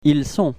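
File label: "ils sont", French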